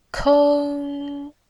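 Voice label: "keng1", Mandarin Chinese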